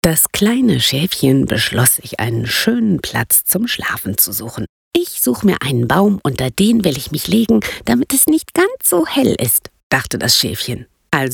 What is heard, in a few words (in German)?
Erzaehlung; Das Kleine Schäfchen